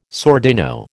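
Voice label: "sordino", American English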